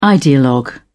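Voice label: "ideologue", English, British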